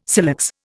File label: "silex", Indian